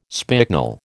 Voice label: "spicknel", American